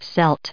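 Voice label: "Celts", US English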